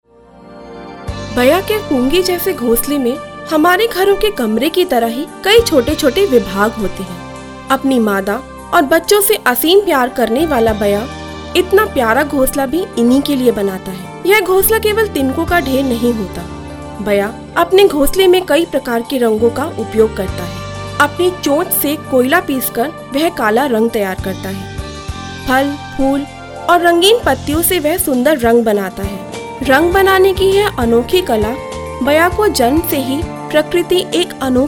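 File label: hindi narration, delhi, female voice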